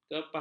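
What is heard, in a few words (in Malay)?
"kepah", Malay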